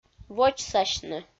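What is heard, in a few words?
"водзсасьны", Komi, female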